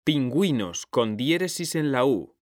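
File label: "pingüinos", Spanish, male; mekanta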